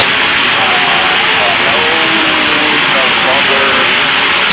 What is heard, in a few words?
SSB, satelite